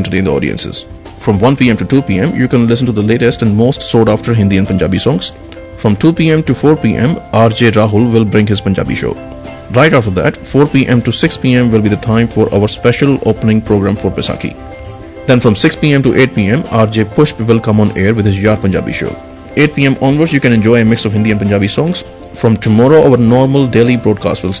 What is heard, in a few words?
Radio schedule English; Radio Haanji